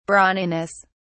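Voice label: "brawniness", British English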